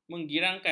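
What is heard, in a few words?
"menggirangkan", Malay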